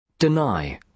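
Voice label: "deny", British English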